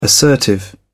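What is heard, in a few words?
"assertive", British English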